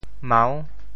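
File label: "mau6", Teochew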